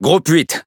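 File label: French Speech Pilots Bomber; World Of Warships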